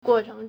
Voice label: "guo4cheng2", Chinese; 过程; 過程; guòchéng; course of events; process